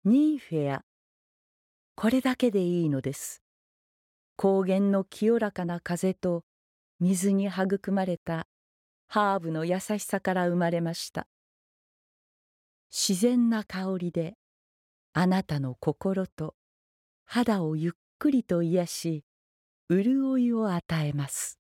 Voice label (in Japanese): ナレーション